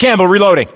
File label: inform reloading, english, us; localized english; battlechatter